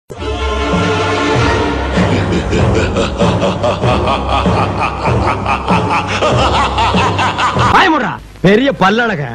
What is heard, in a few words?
Robo Insult